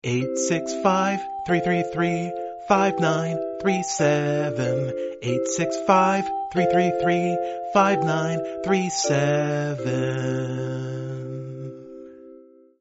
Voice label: radio phone number